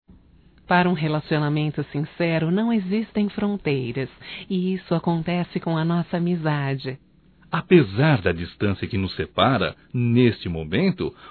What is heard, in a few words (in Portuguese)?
Amiga distante duas vozes, Só Voz; Telemensagens; AMIZADE; fem